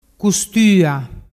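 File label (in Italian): "custua", Vernazzese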